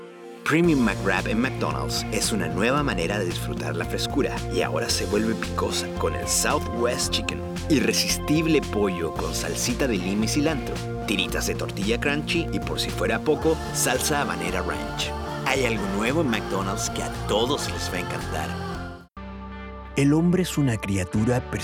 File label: Spanish